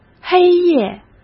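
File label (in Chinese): "黑夜", Mandarin Chinese; hsk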